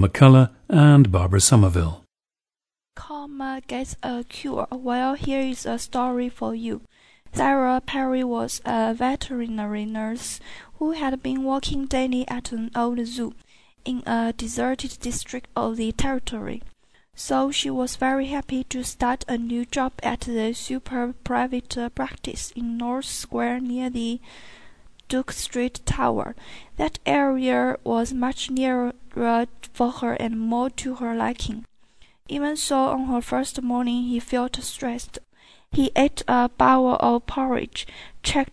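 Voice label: jiangsu, dialects of English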